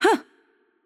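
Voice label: Attack Light, Czech; VO; cs CZ; bloom minigame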